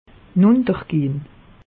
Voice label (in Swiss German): "nuntergehn", alsace; meteo